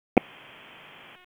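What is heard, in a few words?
EMS DISP, radio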